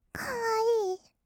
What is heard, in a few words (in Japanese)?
「かわいい」, kawaii voice